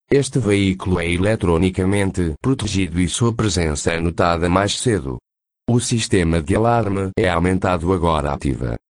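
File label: Portuguese, camper alarm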